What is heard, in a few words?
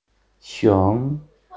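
"xiong2", Mandarin Chinese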